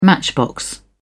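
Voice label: "matchbox", British English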